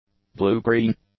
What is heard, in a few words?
"blue-green", English; adjectives